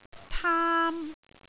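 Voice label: "taam1", Cantonese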